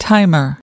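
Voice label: "timer", English word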